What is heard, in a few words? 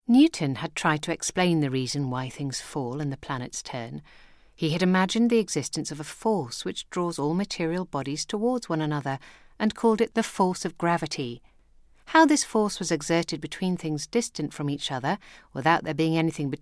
Documentary extract